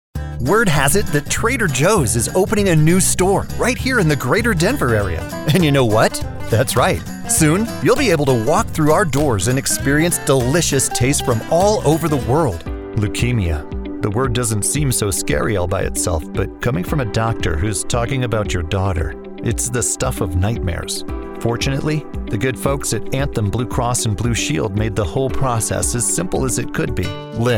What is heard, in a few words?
commercial